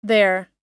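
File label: "there", American English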